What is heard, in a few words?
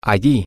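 over Around There, spanish, male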